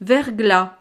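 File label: "verglas", French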